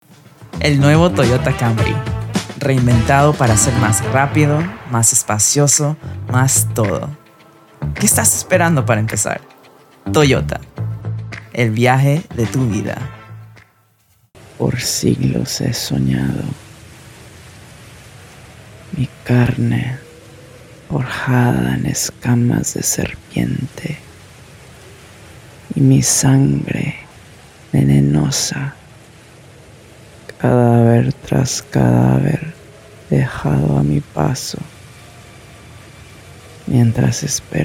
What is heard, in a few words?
Spanish-Latin (Colombia/Ecuador), Female; Studio, 20s-30s; US